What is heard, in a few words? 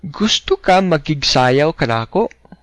dance, cebuano